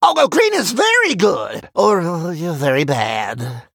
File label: Male Human Play